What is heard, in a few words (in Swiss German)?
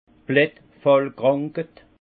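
"blattfallkranked", alsace Haut-Rhin; vin; mildiou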